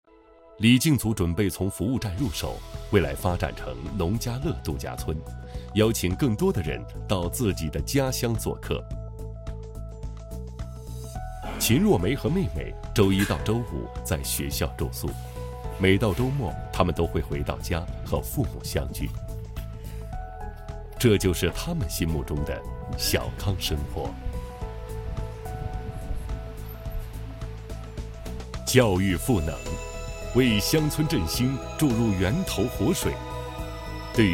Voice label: 男 片花; 好在了我的家; 向学

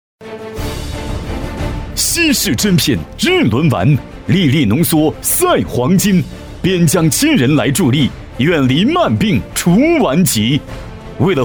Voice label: 男 电购广告; 藏药日轮丸活动

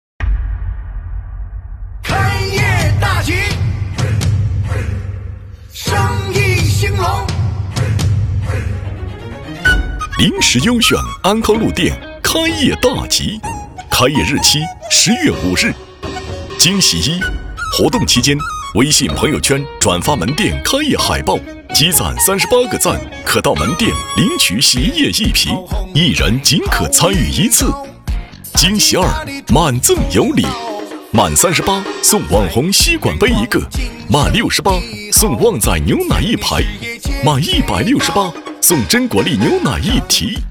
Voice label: 男 开业促销类 零食优选

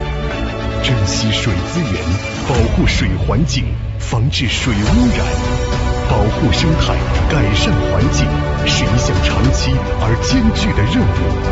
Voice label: 男 党政汇报片; 鄂尔多斯水环境治理

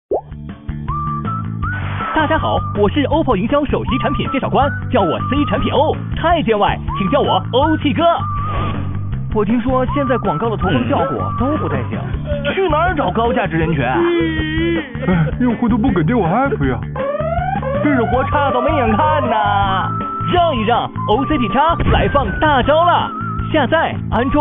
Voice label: 男 飞碟MG; OPPO品牌

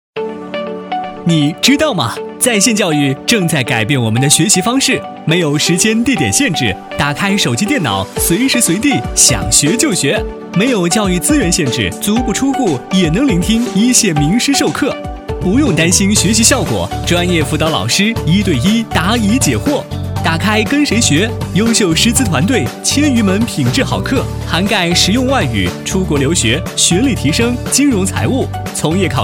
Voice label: 男 飞碟说; 跟谁学

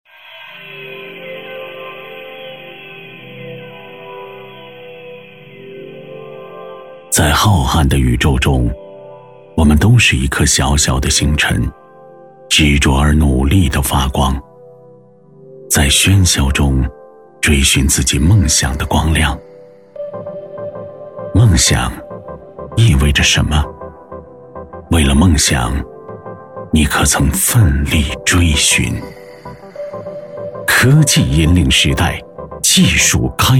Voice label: 男 旁白; 同济科技梦

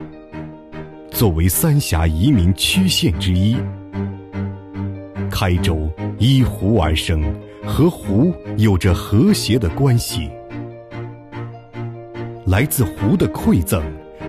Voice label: 男 旅游 宣传片; 重庆旅游

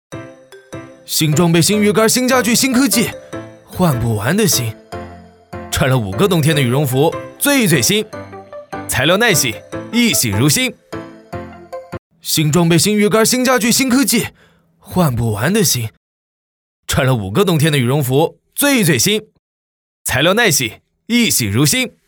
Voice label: 男 轻松年轻; 利郎羽绒服

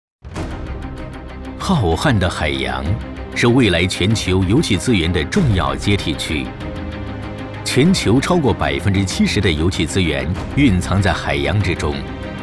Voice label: 男, 宣传汇报; 中海油

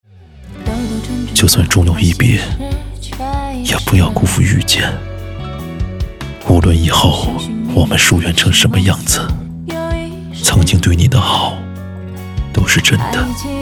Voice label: 男 旁白; 就算终有一别 也不要辜负遇见

